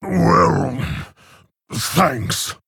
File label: Male Orc Thanks